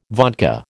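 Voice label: "vodka", American English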